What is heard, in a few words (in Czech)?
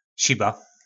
"Šiba", nářečí Po našimu; Skleňená výplň okna